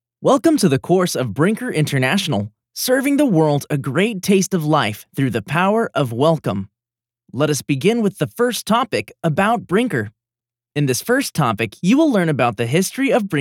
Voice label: E-learning